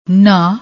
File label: "naa", Punjabi